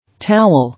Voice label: "Towel", English

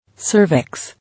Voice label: "Cervix", English

